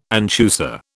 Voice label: "anchusa", British